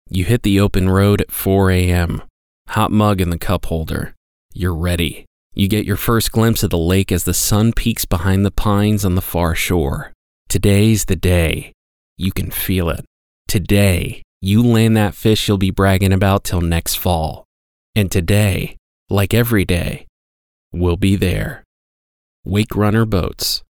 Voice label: Outdoors Fishing Trucks Deep Everyman Voice